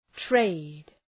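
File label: "trade", English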